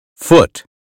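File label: "foot", American phonetics; 英语音标发音（剑桥版）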